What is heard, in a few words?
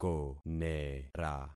"konera", concat syllables; Affixing experiment